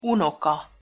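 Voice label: "unoka", Hungarian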